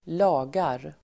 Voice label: "lagar", Swedish